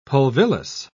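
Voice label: "pulvillus", British English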